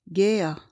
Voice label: "gea", esperanto; vortoj

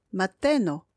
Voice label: "mateno", esperanto; vortoj

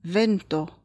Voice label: "vento", esperanto; vortoj

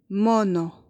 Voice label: "mono", esperanto; vortoj

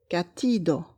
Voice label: "katido", esperanto; vortoj

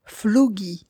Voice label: "flugi", esperanto; vortoj

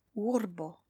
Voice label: "urbo", esperanto; vortoj

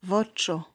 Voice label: "vocxo", esperanto; vortoj

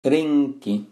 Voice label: "trinki", esperanto; vortoj

